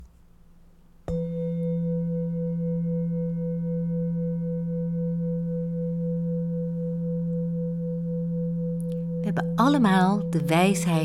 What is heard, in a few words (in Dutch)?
Meditatie; Toeliching Meditatie Hart aarde bron verbinding